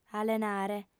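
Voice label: "alenare", lingua sarda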